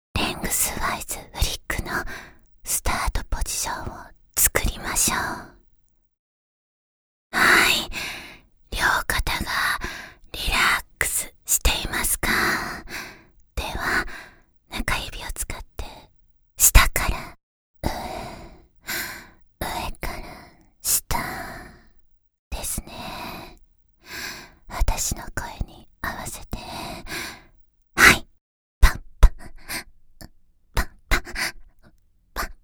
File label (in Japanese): レングスワイズフリックB(ささやき); 実技パーツ（エクササイズ）; 第２回講座より（フリック）